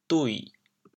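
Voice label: "dui6", Teochew, chaoyang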